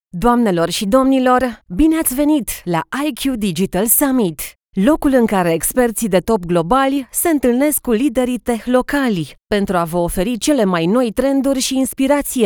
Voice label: Live announcements